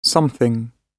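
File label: "something", British English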